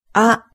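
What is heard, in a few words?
"a", Japanese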